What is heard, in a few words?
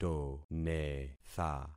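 "tonetha", concatenated syllables; Affixing experiment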